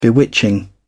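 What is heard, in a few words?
"bewitching", British English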